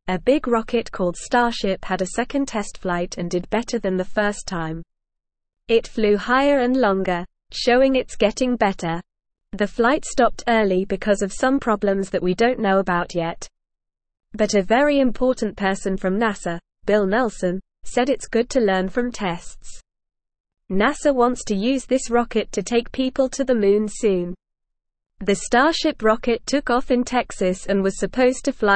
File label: English Newsroom Lower Intermediate NORMAL Reading; Starship Rocket Flies High Still Learning